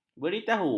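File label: "beritahu", Malay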